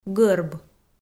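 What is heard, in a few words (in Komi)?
"гӧрб", Komi, female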